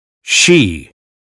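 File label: "she", American English; 英语音标发音（剑桥版）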